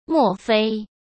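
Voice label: "莫非", Mandarin Chinese; hsk; chinese-flashcards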